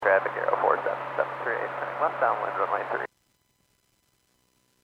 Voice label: Airports 122.7, Radio; KSTE

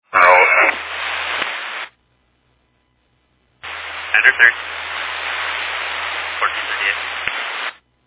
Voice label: Fire Dispatch

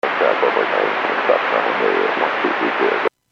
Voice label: Airports 122.7, Radio; KSTE

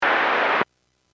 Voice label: Aviation 121.5 Emergency

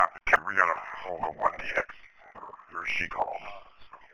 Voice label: Police Sheriff Dispatch

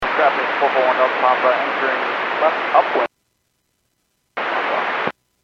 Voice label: Airports 122.7, Radio; KSTE

